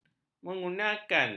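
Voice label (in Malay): "mengurniakan", Malay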